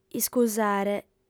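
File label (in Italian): "iscusare", lingua sarda, fem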